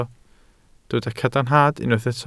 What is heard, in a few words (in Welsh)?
"cadarnhad", Welsh